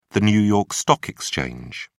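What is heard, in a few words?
"new york stock exchange", British English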